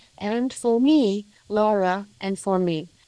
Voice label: Voice Acting, es